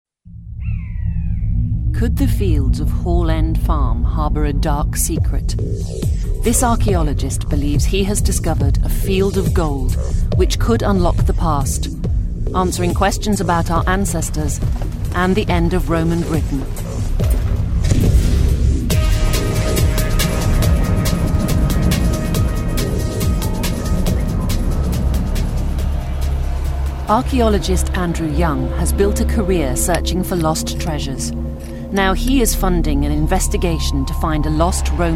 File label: Narration; The way we were; Vocal Point